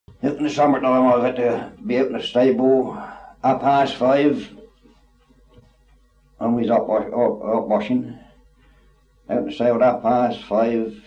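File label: kent goudhurst, male; accents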